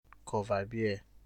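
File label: "coverbeer", Nigerian Pidgin, male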